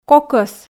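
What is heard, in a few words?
"кокӧс", Komi, female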